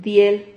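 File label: "diel", Spanish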